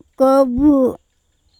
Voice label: "kobu" umbrella, andamanese